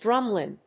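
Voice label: "Drumlin", English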